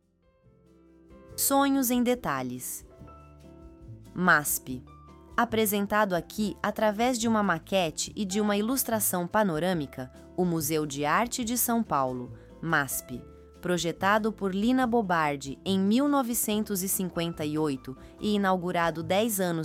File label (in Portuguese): narracao; ilustras sesc e masp